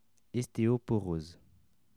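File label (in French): "osteoporose", French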